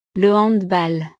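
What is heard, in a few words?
"le handball", French; deportes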